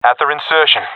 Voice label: at enemy spawn, professional epic; vo; Source Model Packs; Counter Strike Model Pack; CS2